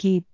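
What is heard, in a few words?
"gip6", Cantonese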